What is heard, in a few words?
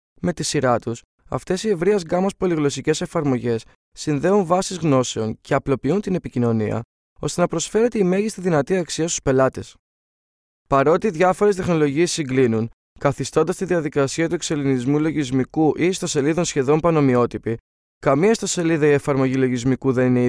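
Greek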